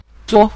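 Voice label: "zuo", Mandarin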